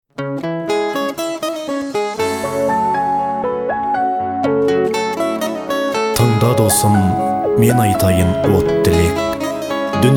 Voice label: monolog